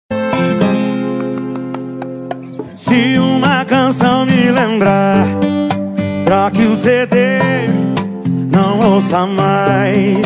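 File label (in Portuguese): birthday PARA ESPOSO, telemensagem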